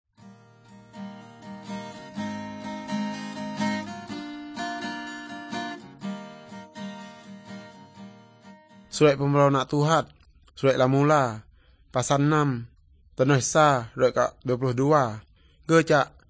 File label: good news, urak lawoi